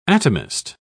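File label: "atomist", English, uk British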